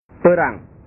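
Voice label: "perang", Indonesian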